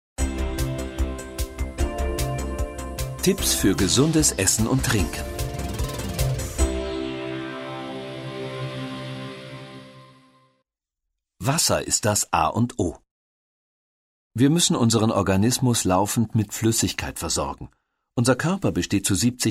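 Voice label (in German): Hörbuch Gesundheit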